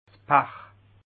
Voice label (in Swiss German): "pach", alsace